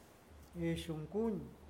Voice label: apagar, Huave

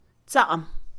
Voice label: maduro, Mixe, Mujer; Comida; INALI

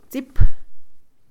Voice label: Mixe, Mujer; pleito; INALI

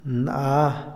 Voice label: uno, Otomí, hombre; INALI

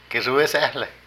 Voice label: Honda, Zapoteco de Petapa; Vocabulary

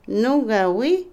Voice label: nosotros, nosotras (inclusivo dual), Otomí, mujer; Parentesco; INALI

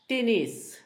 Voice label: ampolla, Zapoteco, Asunción Ocotlan; Enfermedades; INALI; Haspelmath

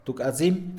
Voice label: "un manojo", Mixe, hombre; Cantidad; INALI

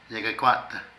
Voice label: Sordo, Zapoteco de Petapa; Cuerpo; Vocabulary

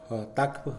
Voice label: "el que hospeda", Mixe, hombre; Sociales

